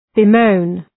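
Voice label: "bemoan", English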